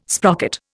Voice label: "sprocket", Indian

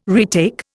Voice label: "retake", Indian

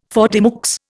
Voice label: "faute de mieux", Indian

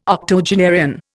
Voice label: "octogenarian", Indian

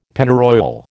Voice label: "Pennyroyal", American

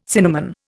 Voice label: "cinnamon", Indian

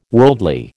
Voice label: "worldly", American

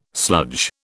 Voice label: "sludge", British

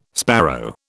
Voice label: "sparrow", British

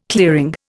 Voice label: "clearing", Indian

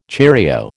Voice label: "cheerio", American

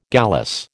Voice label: "gallice", American

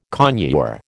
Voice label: "conure", American

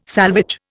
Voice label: "salvage", Indian

